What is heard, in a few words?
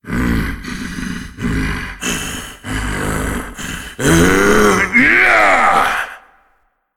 cinematic voice, ru; lineage2